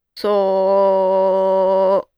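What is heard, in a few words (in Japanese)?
"そ", Japanese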